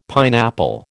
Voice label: "pineapple", American

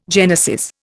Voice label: "genesis", Indian

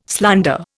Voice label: "slander", Indian

